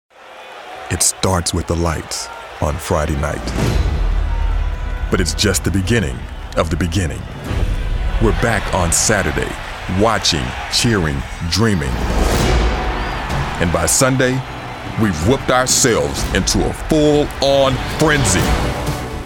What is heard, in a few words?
promo